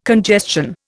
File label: "congestion", Indian